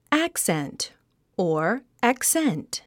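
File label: "accent", English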